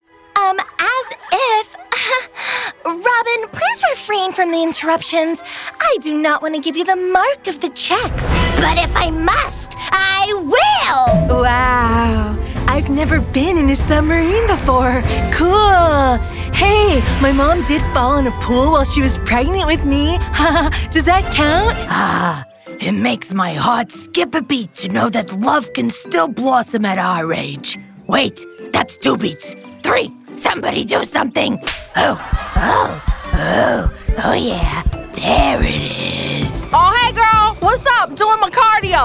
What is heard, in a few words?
women animation